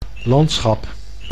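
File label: "landschap", Dutch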